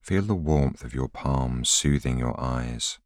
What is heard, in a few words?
voiceover